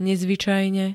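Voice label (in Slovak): "nezvycajne", Slovak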